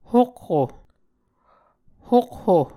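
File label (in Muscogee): "hokho", Muscogee word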